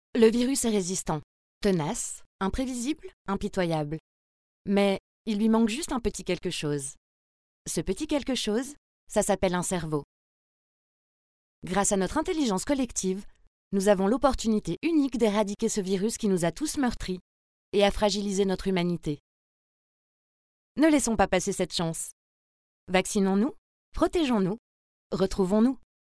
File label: female french voice over narrative, france